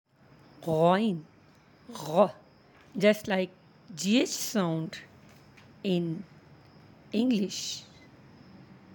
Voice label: "ghain", Arabic; غ; Like Gh